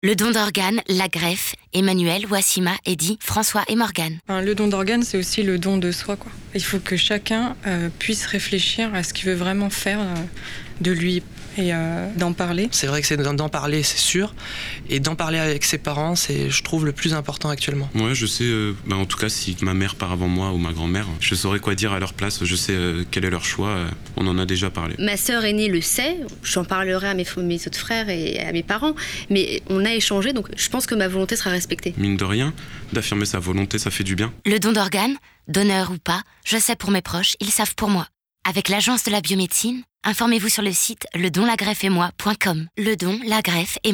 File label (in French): spot radio